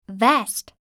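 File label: "vest", English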